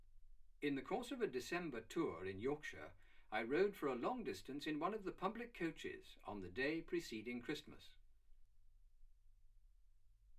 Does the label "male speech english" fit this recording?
Yes